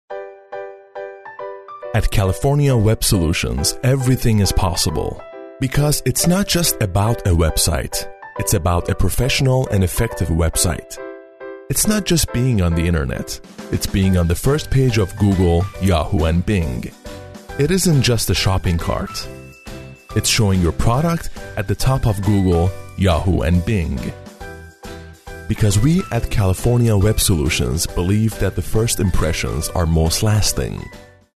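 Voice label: Farsi, male, English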